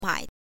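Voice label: "mai", Mandarin Chinese, neutral tone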